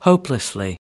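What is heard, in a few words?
"hopelessly", American English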